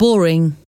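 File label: "boring", English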